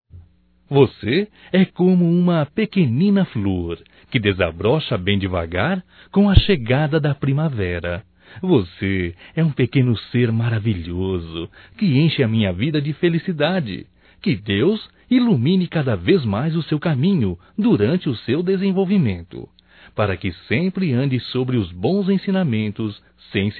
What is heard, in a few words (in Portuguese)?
Dia Crianças Masculino, Telemensagens, Só Voz; DATAS COMEMORATIVAS